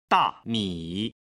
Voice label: "dami", Chinese